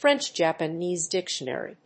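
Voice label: "French-Japanese dictionary", English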